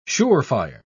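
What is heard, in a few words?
"sure-fire", British English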